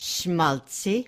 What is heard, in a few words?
"shmaltzy", Yiddish